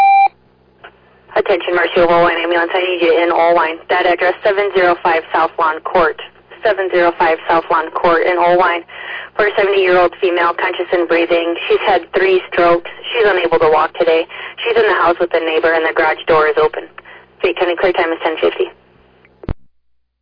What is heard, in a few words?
Oelwein Ambulance, dispatch